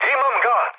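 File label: Serbian player killed enemy mood med, speech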